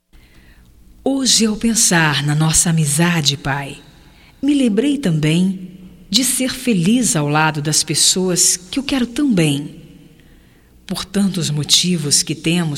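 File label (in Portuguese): HOMENAGEM AO PAI, female, Telemensagens, Só Voz; Telemensagens 2017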